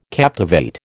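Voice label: "captivate", American English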